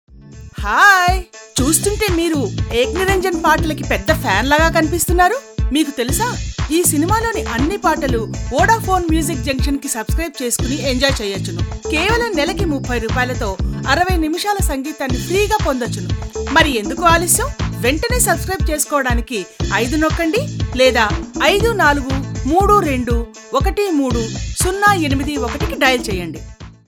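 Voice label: Telugu female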